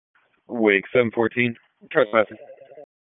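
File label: Sheriff's Office Dispatch 1, p25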